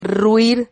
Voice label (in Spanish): "rruir", Silabas